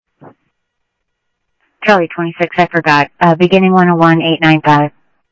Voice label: Police, scanner audio